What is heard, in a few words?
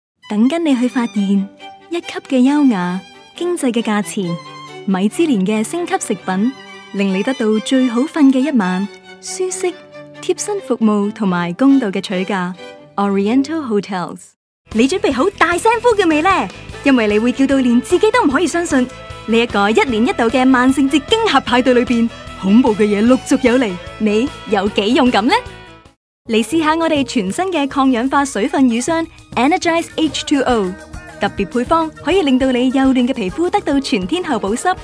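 Cantonese TVC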